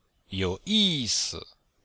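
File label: "you3yi4si5", Chinese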